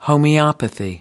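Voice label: "homeopathy", American English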